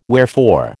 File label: "wherefore", American